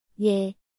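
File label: "ㅖ", Korean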